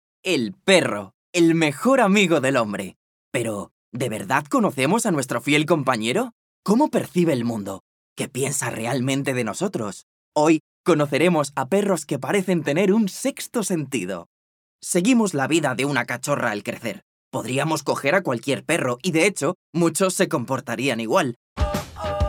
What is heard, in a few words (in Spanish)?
voz masculina juvenil